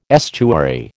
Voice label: "estuary", American